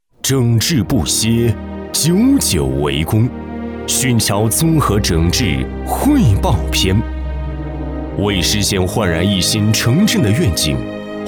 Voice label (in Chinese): 男 专题 党政汇报